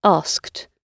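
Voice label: "asked" British English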